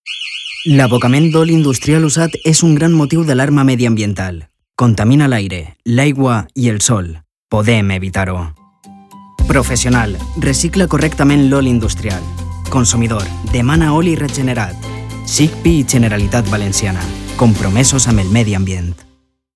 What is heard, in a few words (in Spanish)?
Valenciano institucional, Actor, locutor; Cuna; SIGPI